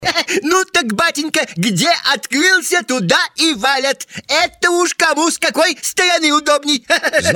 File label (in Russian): пародия. Ленин